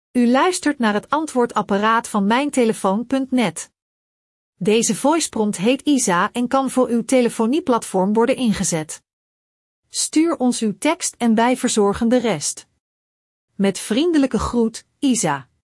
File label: Voice Prompts, NL; Voiceprompt voorbeeld